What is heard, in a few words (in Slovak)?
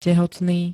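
"tehotný", Slovak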